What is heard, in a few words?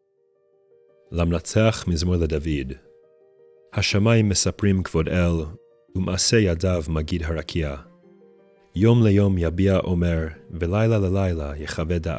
poetry and prayer psalm hebrew